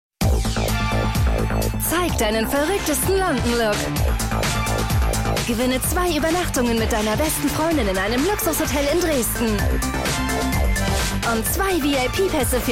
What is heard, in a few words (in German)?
tv spot werblich jugendlich straight; viva; rimmel-london